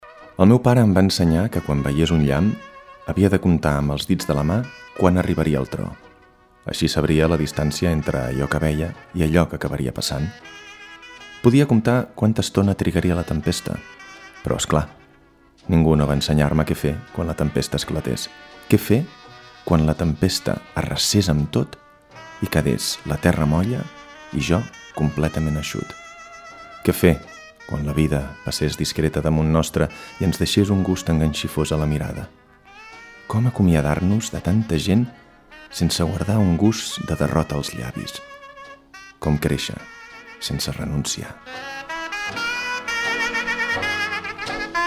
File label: male catalan book comercial; ideasonora